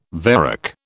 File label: "varec", British